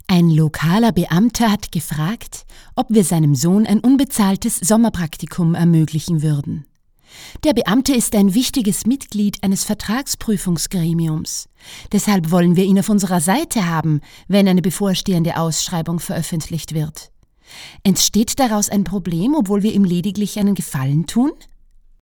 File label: GERMAN